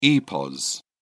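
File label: "epos", British English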